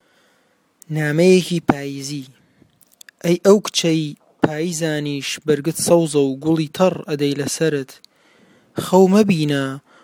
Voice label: letter kurdish; city of nonexistence